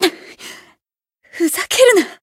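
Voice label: Voice retire, Japanese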